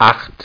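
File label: "acht" German; ZAEHLEN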